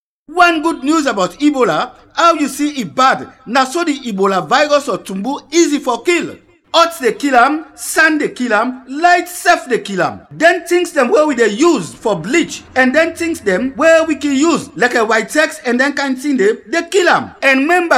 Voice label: Krio, radio spots; ebola